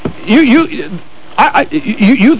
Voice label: stutter